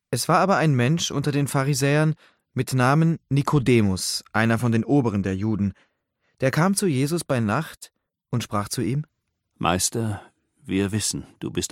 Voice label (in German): szenische Lesung; Trinitatis